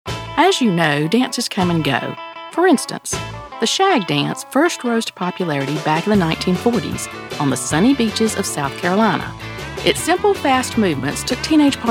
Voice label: Narration